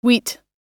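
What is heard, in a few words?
"wheat", English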